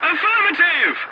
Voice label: voice message yes, english; War Thunder